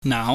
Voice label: "nau", pingyam